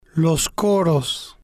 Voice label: "los Coros", spanish